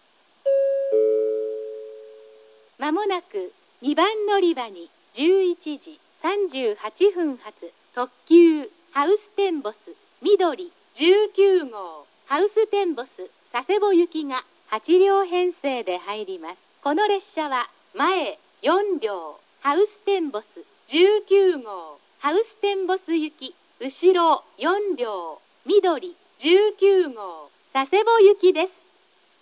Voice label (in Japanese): 2番のりば接近予告放送; ハウステンボス・みどり19号 ハウステンボス・佐世保行き; takeo onsen